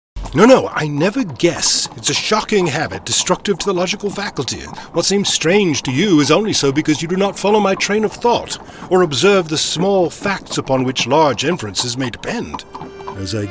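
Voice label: characters, Character Voices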